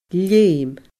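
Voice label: "leum", Scottish Gaelic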